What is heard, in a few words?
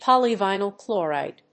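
"polyvinyl chloride", English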